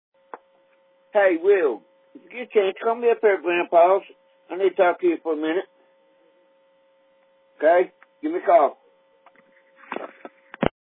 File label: Voicemail